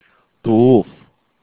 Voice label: "doof", German